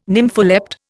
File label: "nympholept", Indian